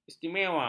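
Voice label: "istimewa", Malay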